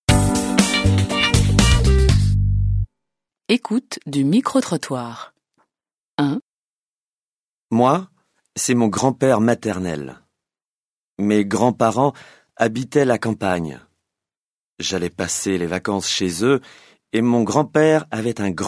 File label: French